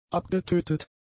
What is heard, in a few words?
"abgetötet", German; adjectives